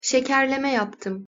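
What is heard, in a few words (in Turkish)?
"şekerleme yaptım", Turkish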